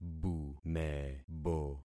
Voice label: "bumebo", concat syllables; Affixing experiment